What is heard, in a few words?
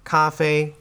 "Kafei", Chinese